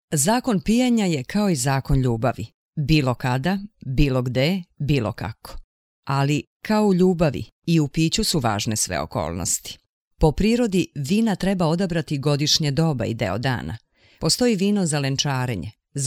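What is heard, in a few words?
Serbian female voice over, sales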